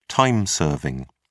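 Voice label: "time serving", British English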